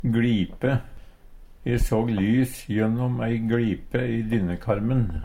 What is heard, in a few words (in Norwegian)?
"gLipe", Numedalsmål